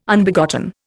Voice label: "Unbegotten", Indian

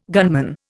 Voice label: "gunman", Indian

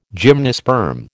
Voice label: "gymnosperm", American

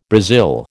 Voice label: "Brazil", American

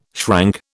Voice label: "shrank", British

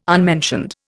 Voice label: "unmentioned", Indian

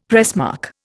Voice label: "pressmark", Indian; Dictionary

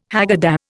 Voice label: "Haggadah", Indian

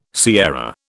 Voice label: "sierra", British

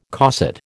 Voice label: "cosset", American

